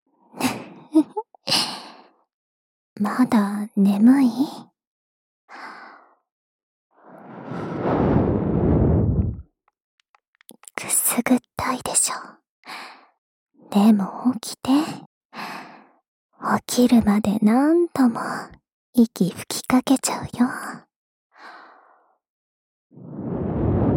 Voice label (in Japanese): 「おまけトラック・スマホ用目覚ましボイス」 左耳